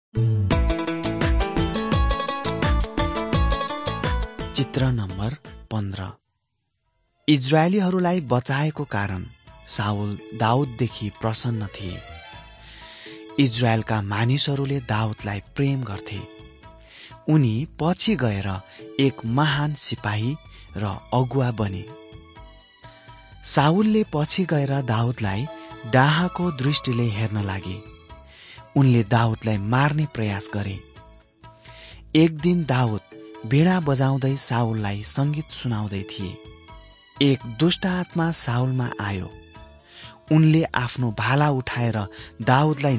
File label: servants of god nepali